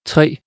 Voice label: Danish